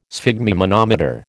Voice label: "sphygmomanometer", American

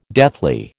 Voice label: "deathly", American

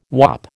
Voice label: "whop", American

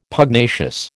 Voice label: "Pugnacious", American

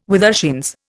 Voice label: "withershins", Indian

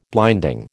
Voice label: "blinding", American

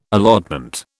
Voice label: "allotment", British

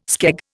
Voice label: "skeg", Indian

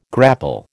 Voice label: "grapple", American

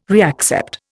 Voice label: "reaccept", Indian

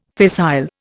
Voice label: "fissile", Indian

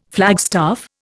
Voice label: "flagstaff", Indian

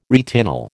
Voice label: "retinol", American

